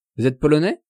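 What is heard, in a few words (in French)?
"vous etes polonais", French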